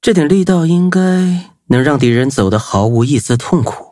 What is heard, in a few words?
level up, zh-hant; cookie run